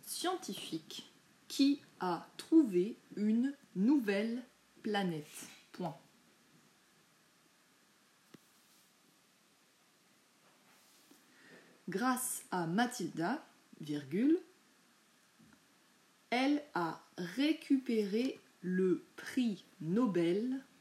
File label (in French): Dictée